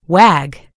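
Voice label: "wag", American English; gcompris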